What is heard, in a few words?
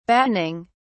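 "battening", British English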